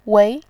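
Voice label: "wei", Mandarin Chinese, tone 2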